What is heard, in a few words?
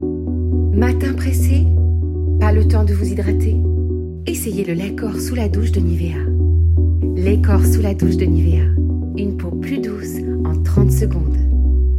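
French, General; GoLocalise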